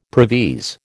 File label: "previse", American